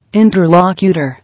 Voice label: "Interlocutor", English

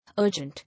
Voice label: "Urgent", English

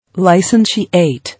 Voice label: "Licentiate", English

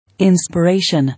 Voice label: "Inspiration", English

